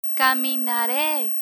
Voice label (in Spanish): "caminare", Spanish; spanish future indicative intro; learn spanish